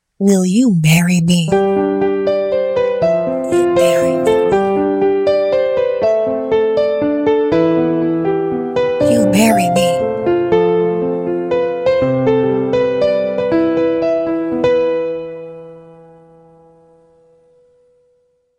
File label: Whispered Proposal Will You Marry Me Ringtone